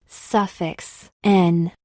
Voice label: EN sweeten phoneme name